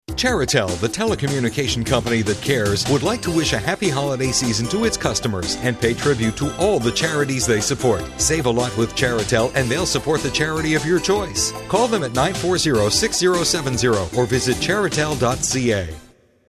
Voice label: NEWS; Charitel